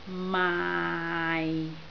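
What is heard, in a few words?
"maai6", Cantonese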